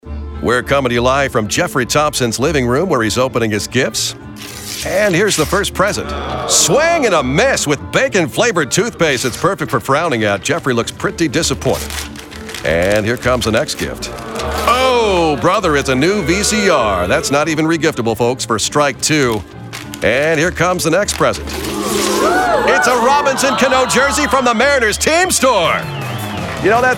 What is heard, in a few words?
announcer; Seattle Mariners Gift